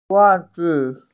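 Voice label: "zhuozi", Mandarin Chinese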